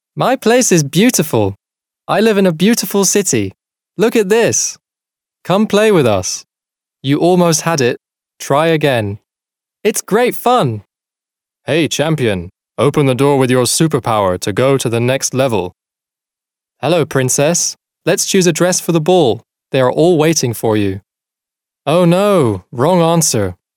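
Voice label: British English male children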